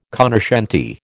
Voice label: "cognoscente", American

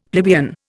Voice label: "plebeian", Indian; Dictionary

